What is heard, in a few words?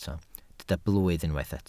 "blwydd", welsh